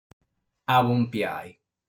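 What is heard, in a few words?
"Abompiai", Sardinian word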